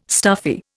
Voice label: "stuffy", Indian